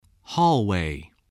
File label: "hallway", voice